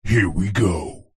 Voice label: multimedia radio production voice male processed deep says here we go